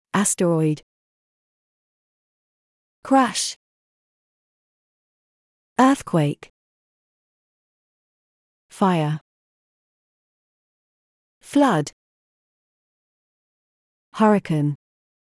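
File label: British; disasters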